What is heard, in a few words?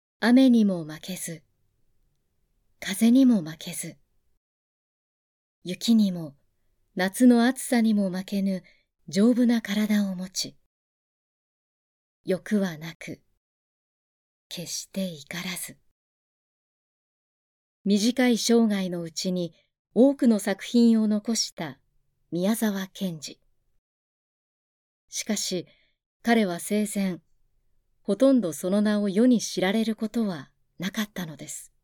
recitation